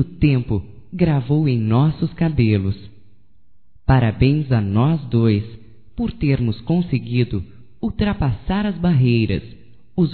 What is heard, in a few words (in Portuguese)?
ANIV DE CASAMENTO, fem; Casamentos; Telemensagens 2017